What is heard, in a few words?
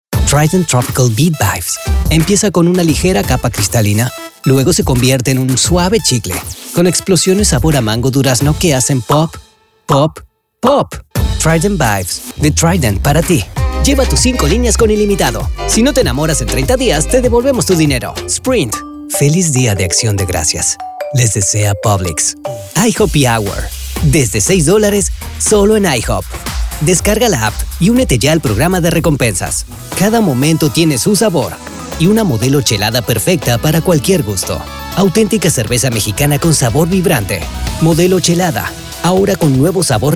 Spanish Latino